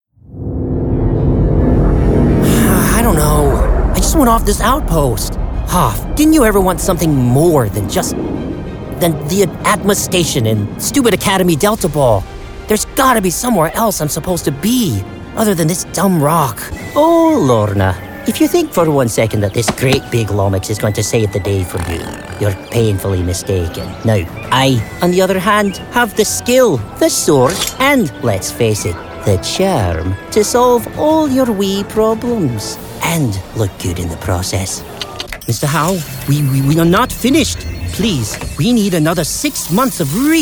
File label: Character